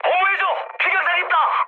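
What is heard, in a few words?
Korean aircraft damaged mood high; speech; War Thunder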